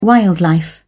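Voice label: "wildlife", British English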